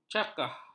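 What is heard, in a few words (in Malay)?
"cakah", Malay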